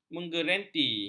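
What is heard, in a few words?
"menggerenti", Malay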